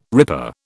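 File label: "ripper", British English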